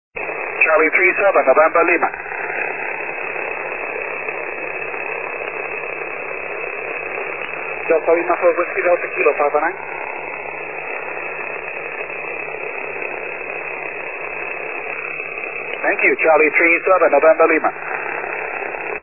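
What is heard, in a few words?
6m single sideband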